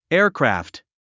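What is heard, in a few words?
"aircraft", English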